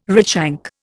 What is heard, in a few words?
"redshank", Indian